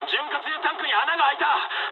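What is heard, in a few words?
Japanese damaged oil mood high; War Thunder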